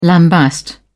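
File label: "lambaste", British English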